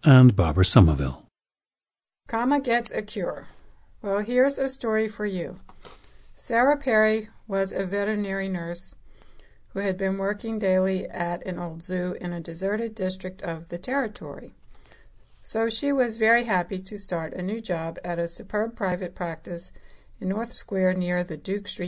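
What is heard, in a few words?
louisiana, International Dialects of English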